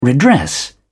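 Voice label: "redress", English, British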